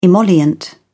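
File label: "emollient", British English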